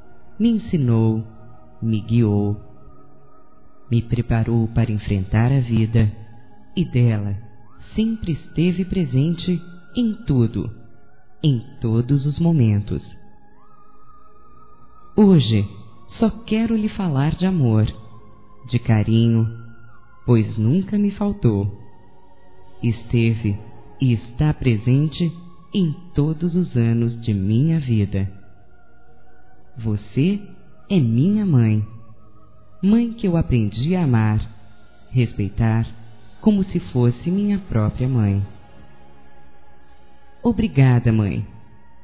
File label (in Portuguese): Madrasta f; Telemensagens 2017